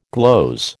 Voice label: "gloze", American